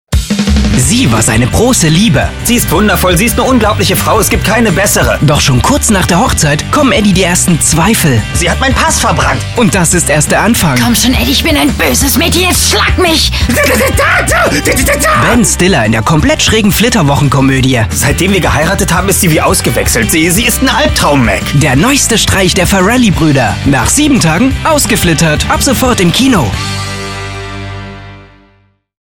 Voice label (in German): werbung; uip; 7tage